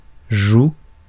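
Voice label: "joue", French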